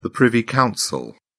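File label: "privy council", British English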